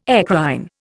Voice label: "eccrine", Indian

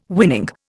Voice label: "winning", Indian

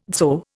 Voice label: "dzho", Indian

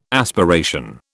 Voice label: "aspiration", British

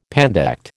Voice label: "pandect", American

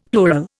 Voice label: "plural", Indian; Dictionary

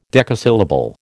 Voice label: "decasyllable", American

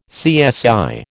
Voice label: "CSI", American

